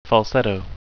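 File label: "falsetto", English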